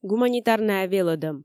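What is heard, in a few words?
"гуманитарнӧя велӧдӧм", Komi, female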